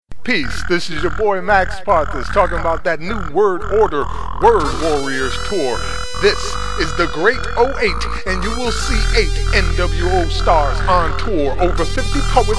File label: 30 sec commercial; Word Warriors Tour; NWO